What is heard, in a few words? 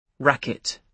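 "racket", British English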